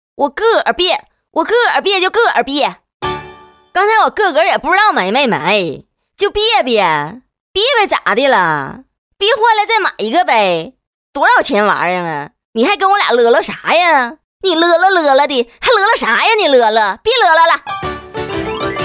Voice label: 女国 动画 角色 东北话

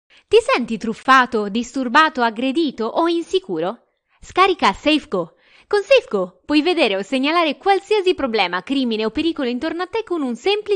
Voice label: 意大利语女 外语 小语种 年轻

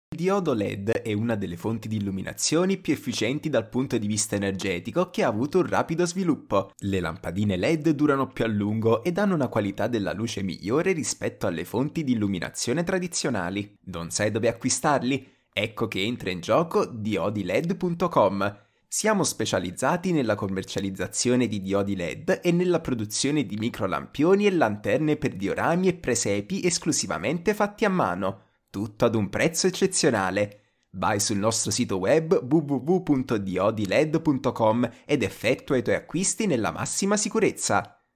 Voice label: 意大利语男 外语 小语种

